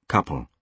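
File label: "couple", British English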